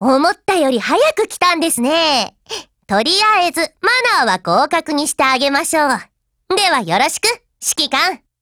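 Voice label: gain, Japanese; USAS12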